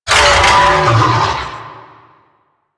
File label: Necramech Melee Pain, Barks; Warframe